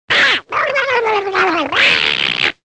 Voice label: Tantrum